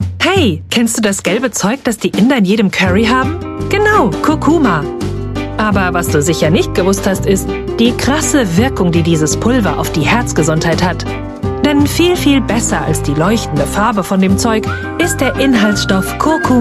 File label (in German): Werbung; Vitamin Guru Curcumin; witzig